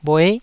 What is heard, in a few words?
"bhue9", taiwanese Syllables